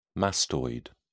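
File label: "mastoid", British English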